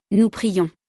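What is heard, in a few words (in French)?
"nous prions", French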